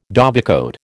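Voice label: "dovecote", American English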